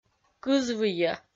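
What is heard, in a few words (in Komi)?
"кызвыйӧ", Komi, female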